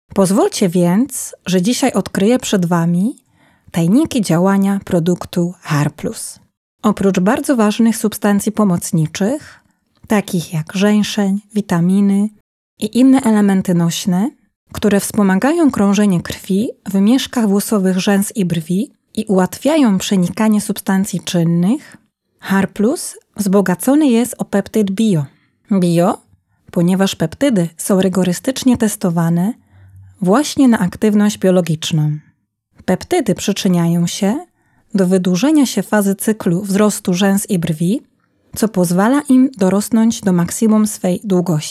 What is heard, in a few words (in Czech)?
ukazka polsko